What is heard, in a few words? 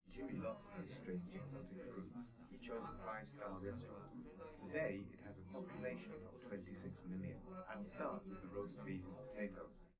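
male, live recording; SiSEC11; underdetermined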